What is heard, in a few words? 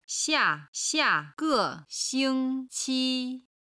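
"xiaxiage xingqi", Chinese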